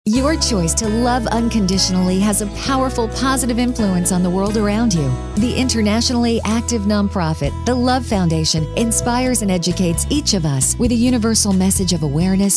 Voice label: commercial; love foundation